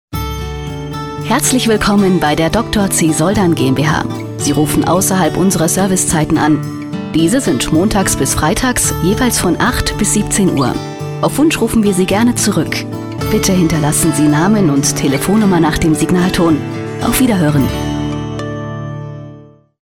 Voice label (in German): Anrufbeantworter; Eukal